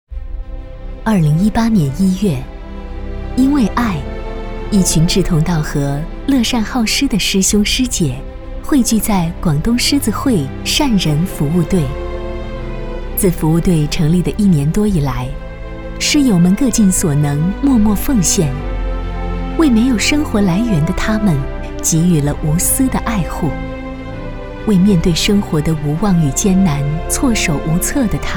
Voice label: 女 专题 爱心公益; 广东狮子会善仁服务队

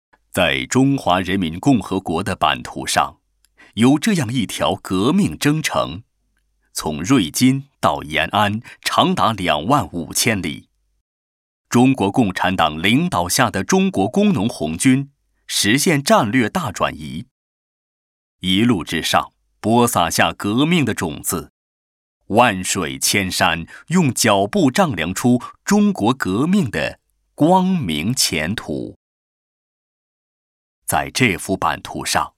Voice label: 男 纪录 革命题材

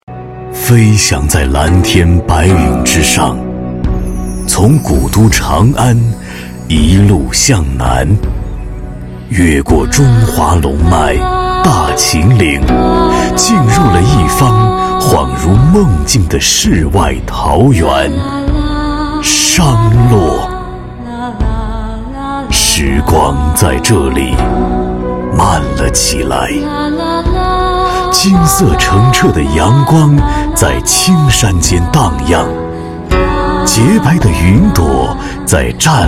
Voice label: 男 宣传片 沙哑年龄感

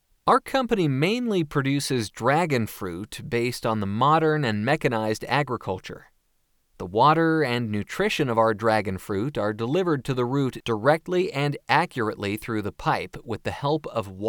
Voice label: 口语化 公司介绍

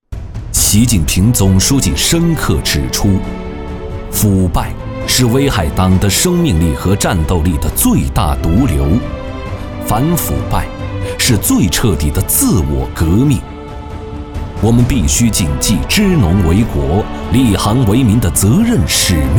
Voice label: 男 专题 稳重激情

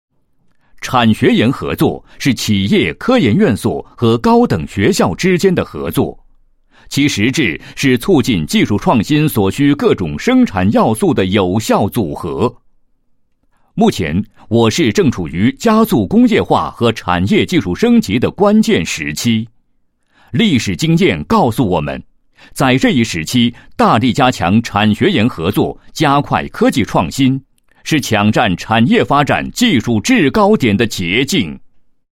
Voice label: 男 解说词; 产学研孝感分会场活动

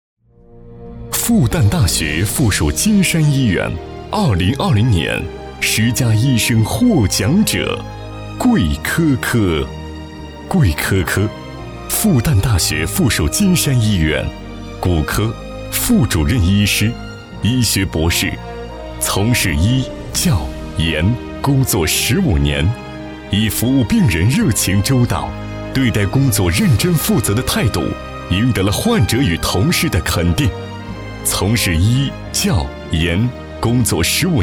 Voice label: 男-颁奖-颁奖词; 复旦大学附属医院颁奖词

